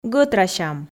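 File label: "гӧтрасям", Komi, female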